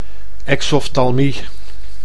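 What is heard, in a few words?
"exoftalmie", Dutch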